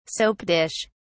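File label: "soap dish", British English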